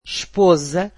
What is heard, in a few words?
portuguese wife